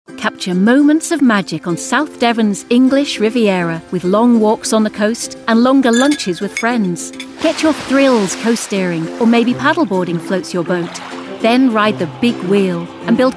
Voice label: English radio commercial; Riveria